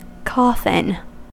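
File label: "coffin", US English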